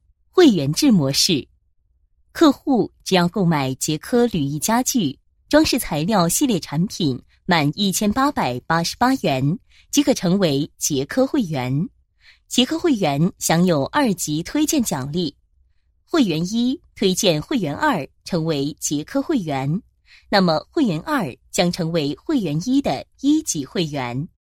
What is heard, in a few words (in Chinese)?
女 解说 介绍; 杰珂铝艺家居会员制模式介绍